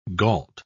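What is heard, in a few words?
"gault", British English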